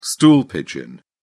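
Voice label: "stool pigeon", English, British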